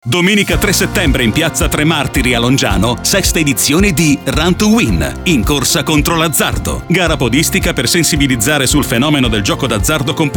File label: SPOT